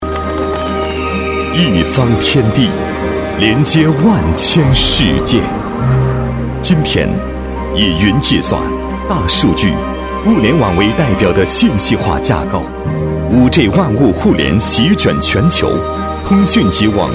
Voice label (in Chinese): 大气专题; 上海阿莱德